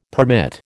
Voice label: "permit", American